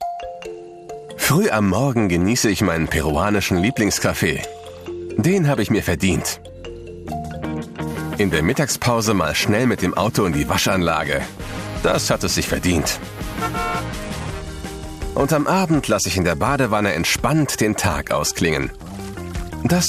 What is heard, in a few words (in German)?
Demo-Social-Spot, Commercial (Werbung), German; Wasser - Demo-Social-Spot